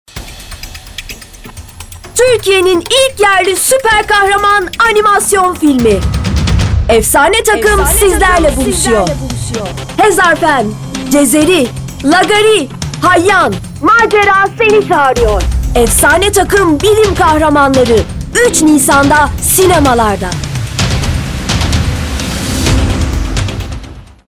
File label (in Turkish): tanitim; bilim kahramanlari